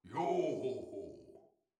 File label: pirate voice; netent